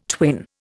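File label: "twin", Indian English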